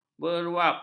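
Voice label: "berwap", Malay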